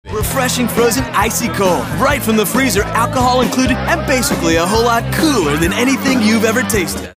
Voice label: animated

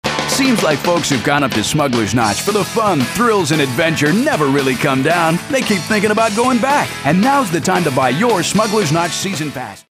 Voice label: upbeat

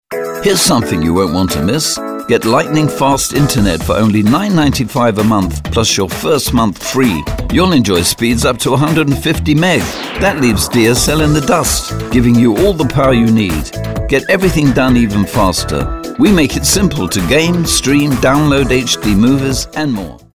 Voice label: Upbeat

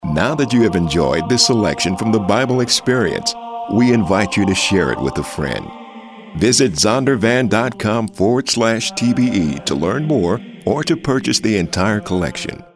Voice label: narrative

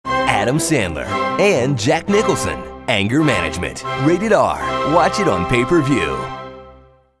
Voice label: friendly

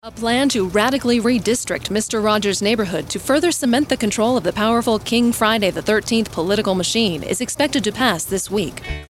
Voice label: Newscaster

